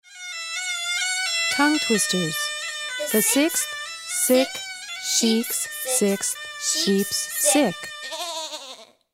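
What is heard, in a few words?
Tongue Twisters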